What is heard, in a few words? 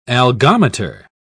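"algometer", British English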